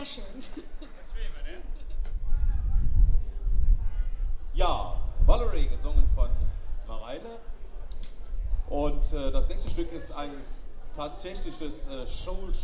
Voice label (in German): Ansage, Konzert; Boemundhof, Saarburg